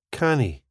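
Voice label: "canny", Scottish English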